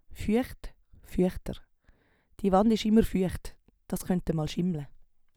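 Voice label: "fueecht", Swiss German vocabulary; Wortschatz Kapitel 5; Kapitel 5 Zusatzwortschatz 1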